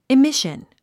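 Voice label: "emission", English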